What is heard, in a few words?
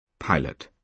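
"pilot", British English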